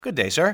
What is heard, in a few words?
good day sir, english male; XBOX; civ